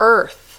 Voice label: "Earth", English